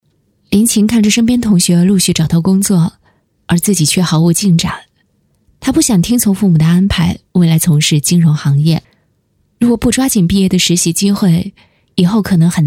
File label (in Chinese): 女 专题片 人物